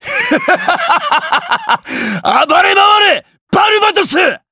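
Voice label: Vox Skill, voices, Japanese; Lusikiel; kings raid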